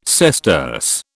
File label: "sesterce", British